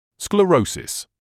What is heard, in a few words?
"sclerosis", English